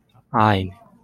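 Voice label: "Ainu", Japanese, male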